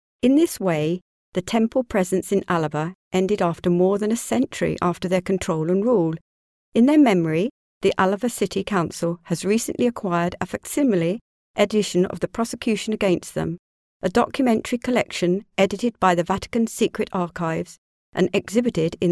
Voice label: locutora inglesa english voiceover; Speaker Inglese